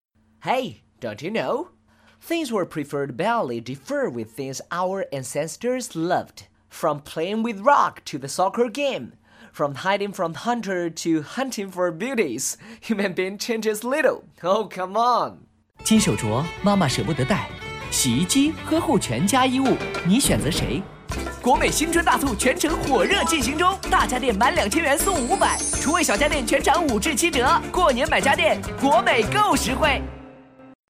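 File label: English and Mandarin, M, Narration; accent of china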